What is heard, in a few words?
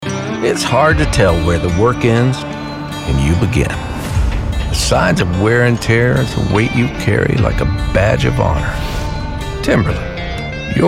Outdoor Clothing Commercial